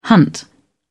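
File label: "hunt", English, British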